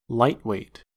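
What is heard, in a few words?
"lightweight", American English; pronunciations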